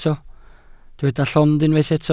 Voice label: "llond", Welsh